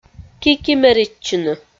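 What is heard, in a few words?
"кикимеритчыны", Komi, female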